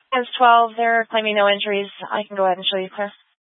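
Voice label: Fire Dispatch, Scanner audio recording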